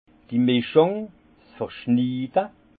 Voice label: "mischung", alsace; vin; assemblage

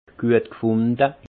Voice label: "guetgfunde", alsace; intégré; vin

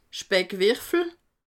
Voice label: "speckwirfel", alsace

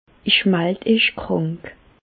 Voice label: krank, Bas Rhin; medecine

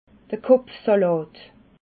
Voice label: "kopfsalat", alsace

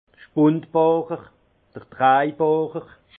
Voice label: "spundbohrer", alsace; vilbrequin

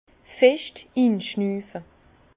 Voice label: "fescht", Bas Rhin; inspirer profondement; vin

